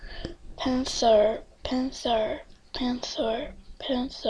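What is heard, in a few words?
"pencil", spoken word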